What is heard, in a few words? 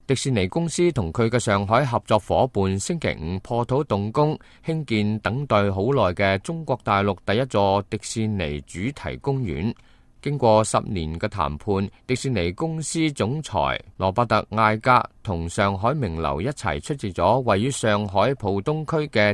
cantonese, news